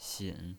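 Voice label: "xin", pinyin voice, third tone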